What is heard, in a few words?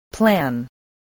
"plan", English